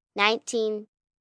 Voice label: Numbers "Nineteen", 1 child, girl counting

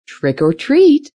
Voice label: Trick Or Treat, Female; Holiday Sound Effects

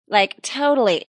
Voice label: Teen Girl Says Like Totally, A teen girl says; Talking

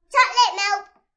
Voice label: Kid Says Chocolate Milk, Toddler talks; Talking

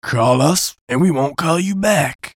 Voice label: VOICE COMICAL; Radio Voice; Blastwave

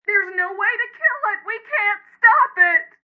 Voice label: No Way To Kill, Female; Talking; Voice Box

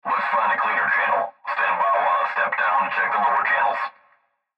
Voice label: Cb Trucker Radio; Cb Radio Male Lets Find A Cleaner Channel Standby; Radio Voice; Blastwave

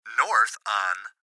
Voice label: Gps Voice, Male

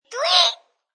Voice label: Toddler says Tweet, Toddler nonsense sound; Talking (Sound Effects:Voice)

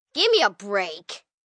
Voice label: Gimme A Break, Attitude, 1 girl, 9 yrs

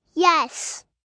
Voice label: "Yes", Response, 1 girl, 3 yrs.; Talking Sound Effects; Sound Effects:Voice; VoiceBox

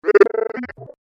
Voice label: Robotic Voice Garbled Data

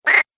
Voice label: Cartoon Gremlin Quackers, cartoon voice

